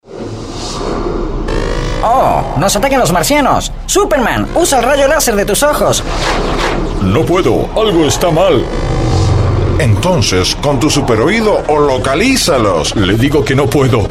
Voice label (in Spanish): Spot Radio; Optica Loa